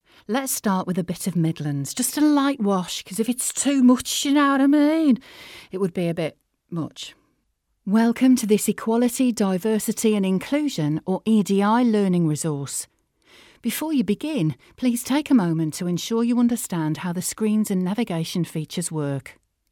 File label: midlands accent